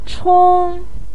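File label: "chong1", Mandarin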